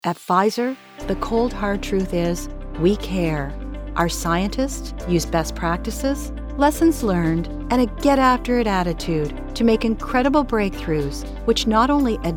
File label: Corporate Narration